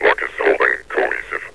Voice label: block is holding cohesive, metropolice; hl2